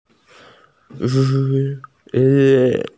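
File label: "rain boots", Mandarin; yu3xie2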